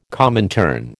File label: "Comintern", American